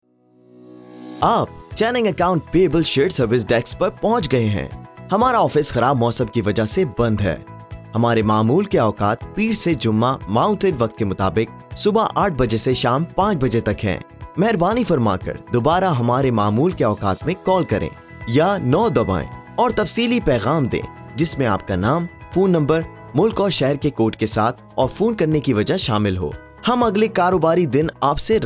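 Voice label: Urdu General; GoLocalise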